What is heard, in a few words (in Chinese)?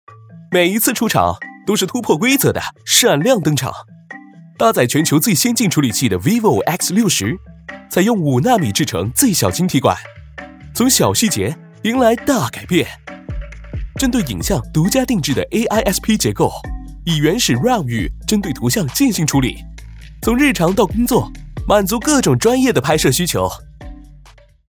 国语广告; 手机